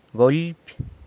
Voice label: "golpy", San Lucas Quiavini; blow or hit